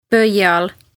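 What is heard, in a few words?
"Pöial", Estonian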